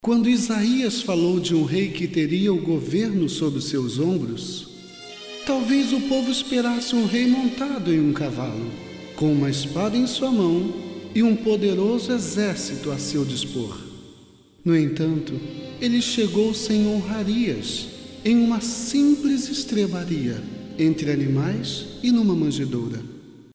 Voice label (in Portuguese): Narracao